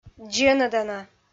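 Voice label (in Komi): "дзенӧдана", Komi, female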